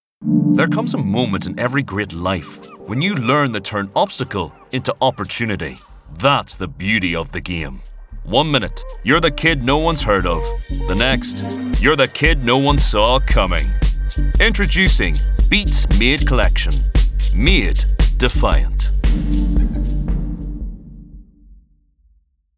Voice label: northern irish accent commercial; beats